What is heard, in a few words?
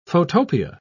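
"photopia", British English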